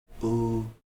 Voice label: "u", Heiltsuk

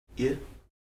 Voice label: "i", Heiltsuk

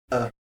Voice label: "a̓", Heiltsuk

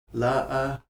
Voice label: yes, Heiltsuk

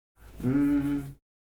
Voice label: "ṃ́", Heiltsuk

